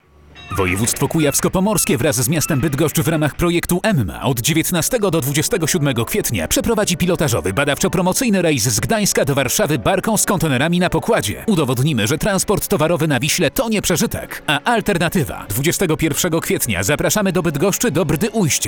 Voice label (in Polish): spot radiowy; Projekty Unijne; EMMA